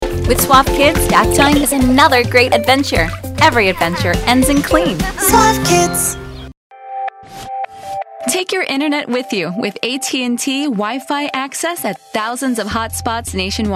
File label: VO Reel